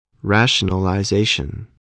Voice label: "rationalization", english